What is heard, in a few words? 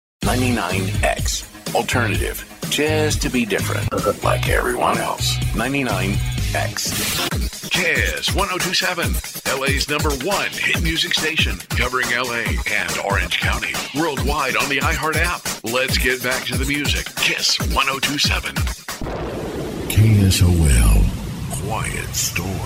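Radio, voice over demos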